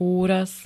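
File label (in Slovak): "úraz", Slovak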